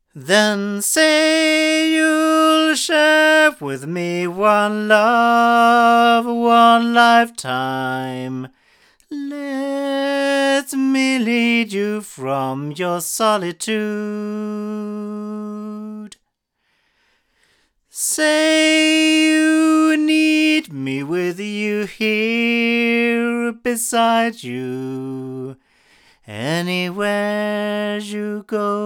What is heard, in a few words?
Rode NT3 male